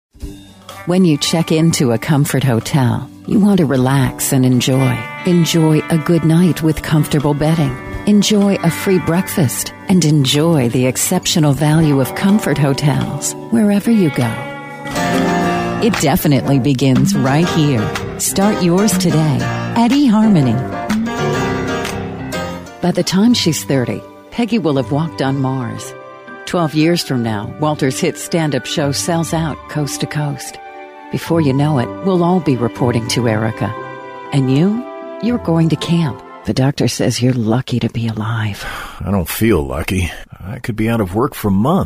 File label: Spot